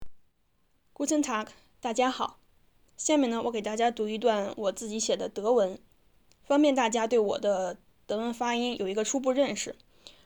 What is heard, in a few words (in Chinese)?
德文